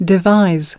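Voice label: "Devise", English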